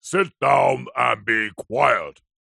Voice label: human old grumpy man english says angrily sit down and be quiet; zapsplat